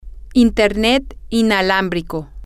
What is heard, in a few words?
wireless internet, spanish, F